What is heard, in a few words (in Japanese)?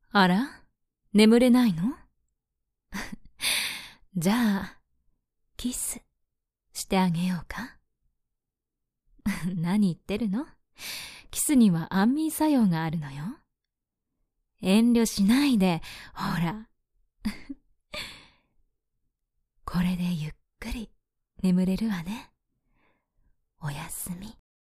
josei